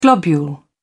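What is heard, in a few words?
"globule", English, British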